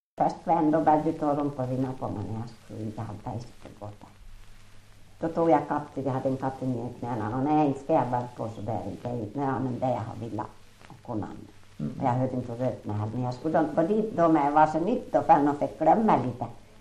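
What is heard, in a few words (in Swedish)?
Ål Dalarna, dialekter; Folkminnen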